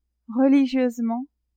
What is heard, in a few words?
"religieusement", French; adverbs